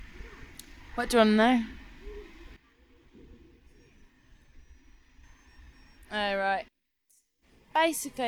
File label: Birmingham woman 19 20; europe; british